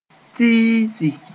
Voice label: "tiisi", Moore